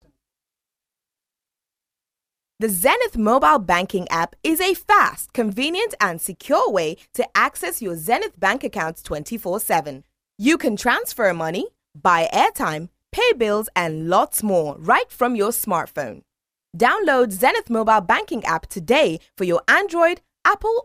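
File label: English Afrikaans, General; GoLocalise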